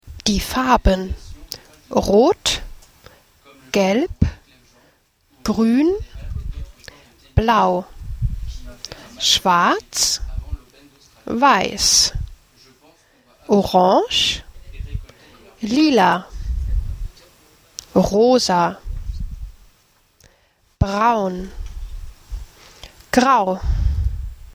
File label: colours german